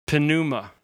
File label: "pneuma", greek